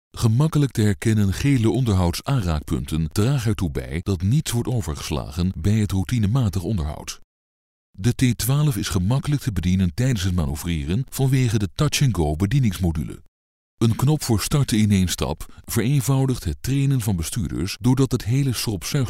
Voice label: Dutch